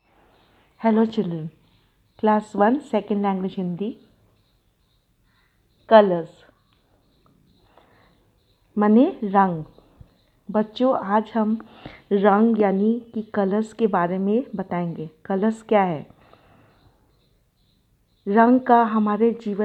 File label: Hindi Audio